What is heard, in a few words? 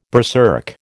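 "berserk", American English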